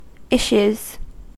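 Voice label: "issues", US English; pronunciations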